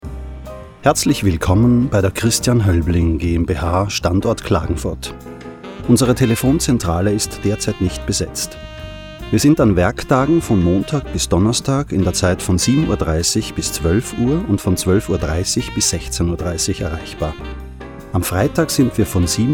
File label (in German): TelAns Neutral